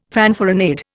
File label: "fanfaronade", Indian